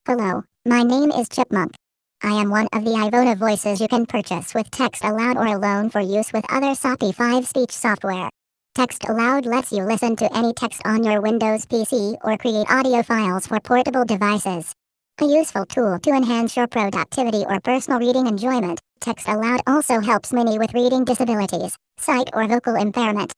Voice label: Chipmunk